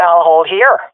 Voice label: waiting here, swat fem; vo; Counter Strike Model Pack; CS:GO

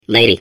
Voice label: babble; Portal 2

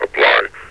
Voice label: "apply", metropolice vo; Half Life Alyx

